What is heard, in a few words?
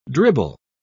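"dribble", English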